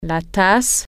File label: "cup", French